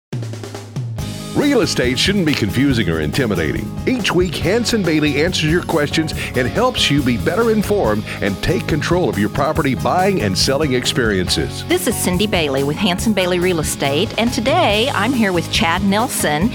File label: Commercial